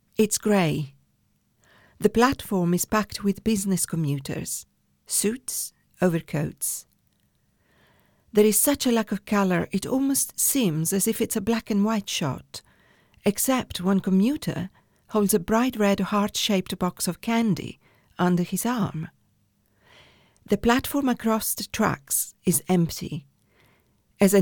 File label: Home Studio Test